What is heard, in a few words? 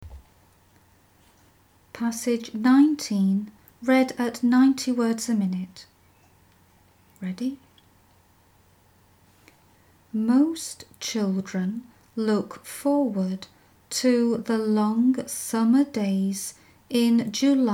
90wpm; Teeline